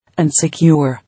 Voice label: "Insecure", English